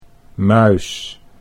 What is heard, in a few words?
"muis", Dutch